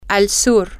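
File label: Spanish, female; to The South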